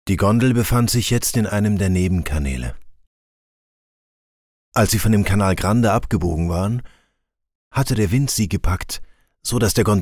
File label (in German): DEUTSCH MALE Hoerbuch; Ueber den Fluss und in die Waelder; LOFT VOICES